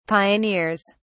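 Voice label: "pioneers", British English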